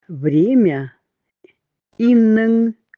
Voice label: время эвенский

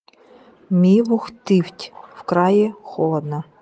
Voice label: Край нивхский язык

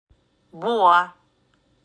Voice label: "Буа", удэгейский; Тайга

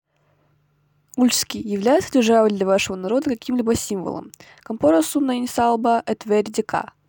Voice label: ульчский предложение

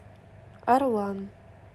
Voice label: Орлан русский

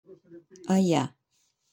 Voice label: "Хорошо", орочский; Ая; транскрипция [ая]

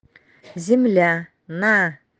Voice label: Край нанайский язык

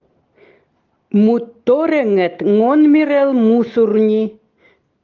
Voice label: Край эвенский язык

